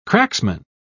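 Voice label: "cracksman", British English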